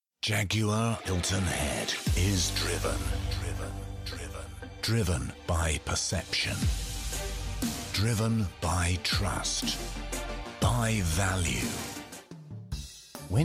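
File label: commercials english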